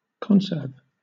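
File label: "conserve", British English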